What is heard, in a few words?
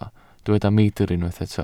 "meidr", Welsh